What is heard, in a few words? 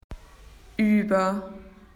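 "above", Australian English; PictureDictionary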